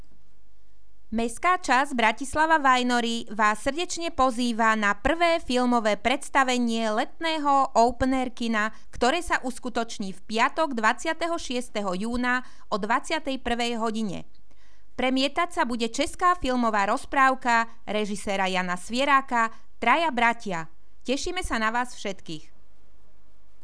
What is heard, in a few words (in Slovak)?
Hlásenie miestneho rozhlasu; Hlásenie miestneho rozhlasu k prvému filmovému predstaveniu OPEN AIR KINA